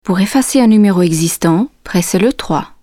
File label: court FR